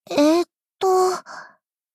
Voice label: doubt